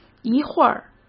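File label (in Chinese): "一会儿", Mandarin Chinese; hsk; chinese-flashcards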